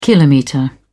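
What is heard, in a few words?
"kilometre", British English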